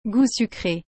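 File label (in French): "gout sucre", French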